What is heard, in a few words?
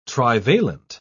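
"trivalent", British English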